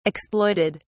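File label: "exploited", English, British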